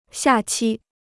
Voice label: "下期", Chinese

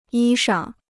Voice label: "衣裳", Chinese

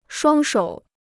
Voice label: "双手", Chinese

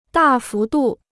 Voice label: "大幅度", Chinese

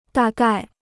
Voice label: "大概", Chinese

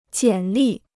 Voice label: "简历", Chinese

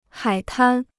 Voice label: "海滩", Chinese

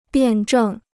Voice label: "辩证", Chinese

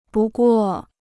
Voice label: "不过", Chinese

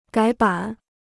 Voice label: "改版", Chinese

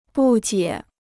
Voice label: "不解", Chinese

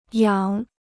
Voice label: "仰", Chinese

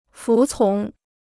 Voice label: "服从", Chinese

